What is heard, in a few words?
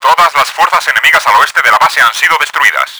west attack cleared, Spanish; Battle Engine Aquila; XBOX